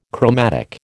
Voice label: "chromatic", American